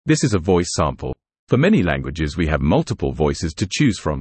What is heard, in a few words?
en-GB News